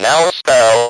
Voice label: speak and spell "NOW SPELL"